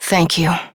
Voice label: Operator Voice, en; Warframe; New War

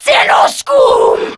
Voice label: Player Spotted, Grineer Female; Warframe; Battle Barks

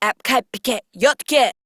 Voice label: Patrol Female; Warframe; Modular Corpus Female; Battle Barks; en

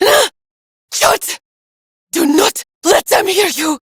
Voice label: Villagers Fem en

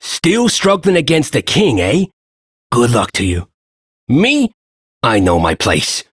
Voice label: Ambient Shopkeeper, Dialog; Duviri

